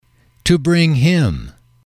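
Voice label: "to bring him", English, male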